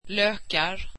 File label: swedish onions